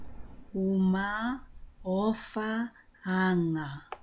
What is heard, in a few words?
normal vowel sounds, Tongan